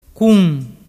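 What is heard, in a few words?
"cun", Vernazzese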